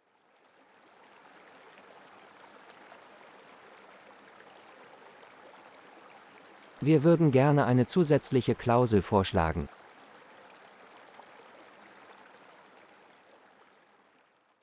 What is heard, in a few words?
Relax Water Phrases, de-en; Business Negotiations